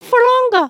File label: talk kind; emotes